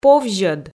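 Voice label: "повзьӧд" Komi, female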